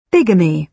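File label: "bigamy", British English pronunciation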